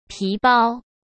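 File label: "皮包", Mandarin Chinese; hsk; chinese-flashcards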